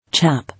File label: "Chap", English